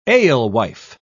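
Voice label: "alewife", British English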